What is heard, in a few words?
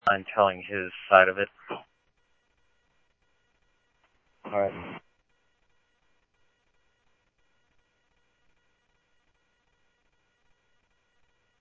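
Mesa Police Department, scanner audio trunk; Mesa Simulcast; MESA PD Superstn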